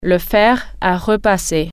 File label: iron, french